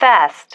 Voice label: "fast", female

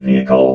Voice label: "vehicle", robot

